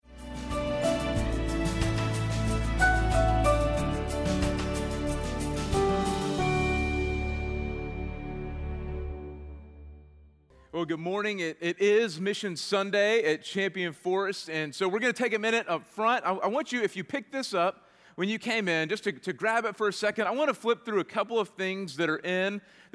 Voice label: Sermon English